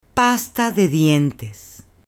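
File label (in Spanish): "PASTA DE DIENTES", Spanish, female; AppLenguaje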